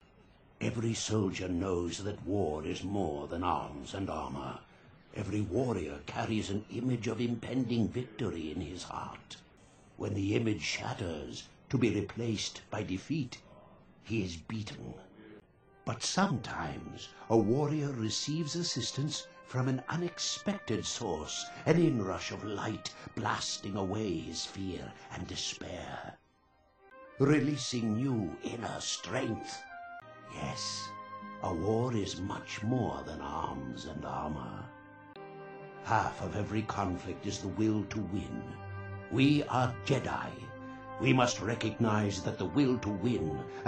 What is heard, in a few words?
Monologue; ArcaJeth